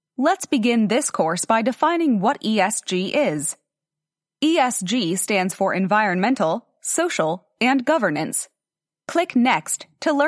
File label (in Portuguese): Casting Ingles EUA Locutora